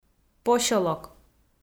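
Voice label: "посёлок", Komi, female; kpv audio dict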